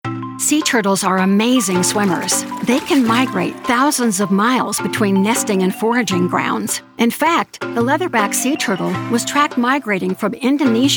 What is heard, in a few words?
Narration